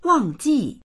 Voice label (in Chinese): "wang4ji4", voices; 忘记; 忘れる; dictionary